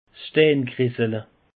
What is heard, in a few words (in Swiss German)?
"steingressele", alsace; peche